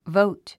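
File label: "vote", English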